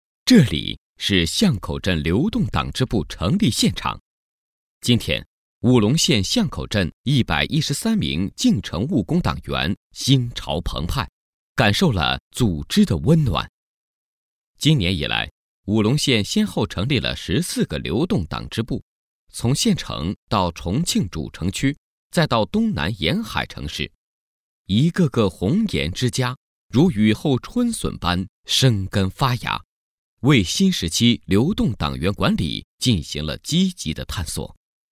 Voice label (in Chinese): 男 专题, 国语男声配音; 巷口镇